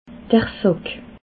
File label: "sack", alsace